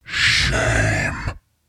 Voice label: Shame, Announcer; liblast